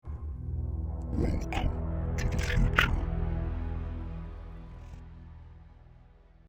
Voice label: demonic voice